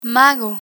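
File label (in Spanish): "mago", Spanish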